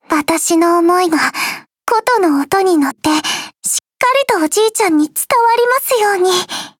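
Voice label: start, Japanese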